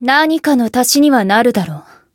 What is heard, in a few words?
voice jp; isuka